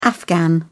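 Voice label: "afghan", English, uk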